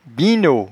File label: "binno", patois jura